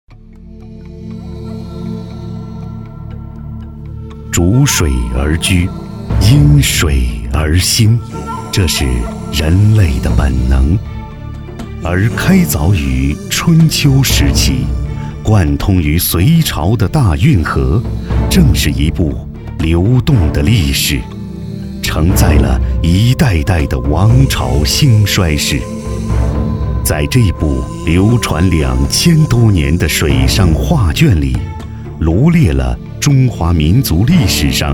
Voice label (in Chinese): 男国 纪录片 人文历史; 山陕会馆